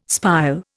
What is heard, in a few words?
"spile", Indian